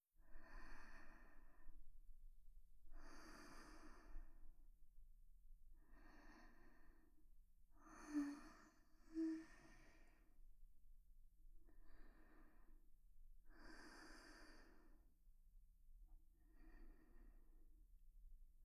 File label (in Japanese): 添い寝♪左側; 羽衣の添い寝